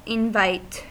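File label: "invite", US English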